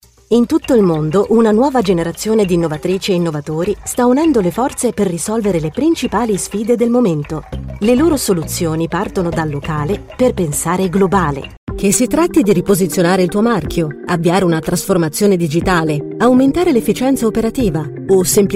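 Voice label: Italian, Corporate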